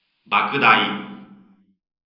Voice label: M reverberated